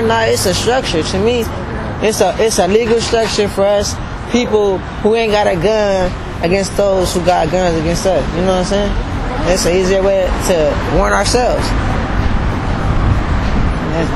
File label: whistle interview